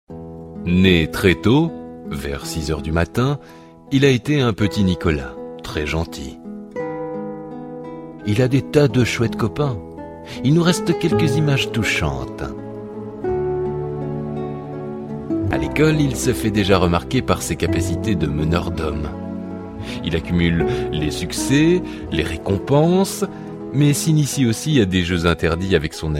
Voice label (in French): H documentaire; FR; Français